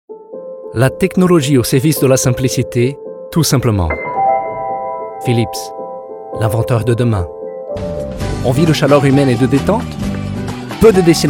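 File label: Brazilian Portuguese, accented French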